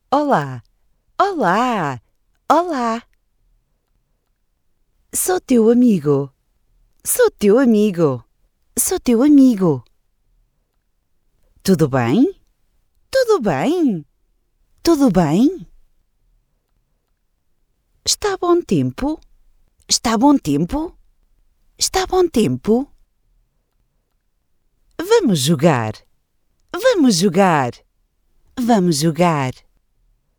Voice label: pt woman